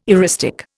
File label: "eristic", Indian